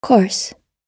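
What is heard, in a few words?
"course", US English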